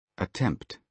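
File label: "attempt", British English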